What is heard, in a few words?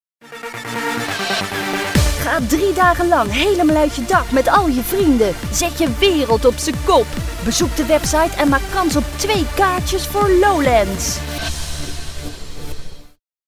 promo